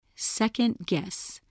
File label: "second-guess", english spelling